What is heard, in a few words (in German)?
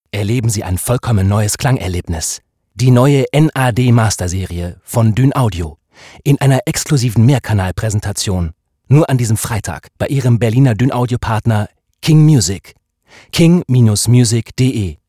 werbung